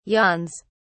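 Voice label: "yawns", British English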